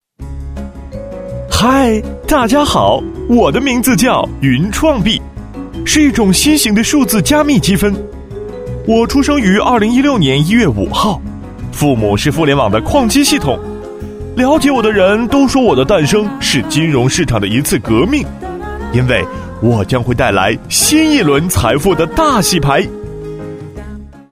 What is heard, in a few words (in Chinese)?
男; 飞碟说; 云创币